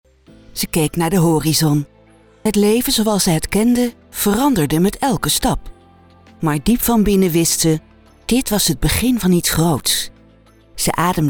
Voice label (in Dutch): Fragment audioboek; stemvoorbeelden